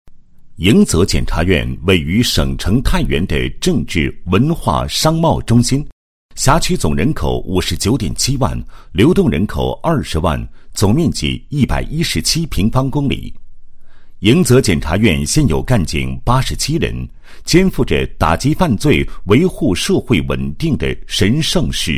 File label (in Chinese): 男 政府 专题片; 某检察院专题片